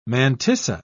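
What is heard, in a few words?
"mantissa", English, British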